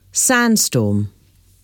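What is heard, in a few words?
"sandstorm", English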